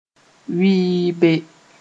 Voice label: "wɩɩbe", moore

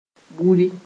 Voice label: "buri", moore

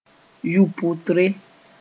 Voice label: "yʋ-pʋtre", moore

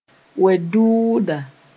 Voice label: "wed-dʋʋda", moore

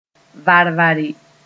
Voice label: "var-vare", moore

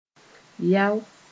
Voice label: "yao", moore

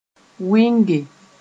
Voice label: "wɩnge", moore

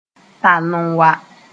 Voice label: "tall n wa", moore

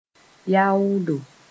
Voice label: "yaoodo", moore

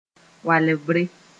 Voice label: "walebre", Mooré

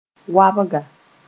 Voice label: "woabga", moore

